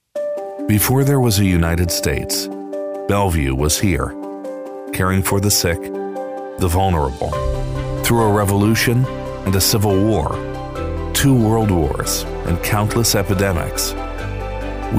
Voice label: Crisis Commercial